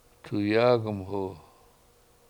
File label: "tuyAAg1mhu", Washo; Western Pony